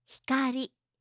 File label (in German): "hikari", japanische